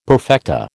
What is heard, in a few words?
"perfecta", American